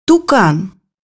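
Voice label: "toucan", Russian; bukvar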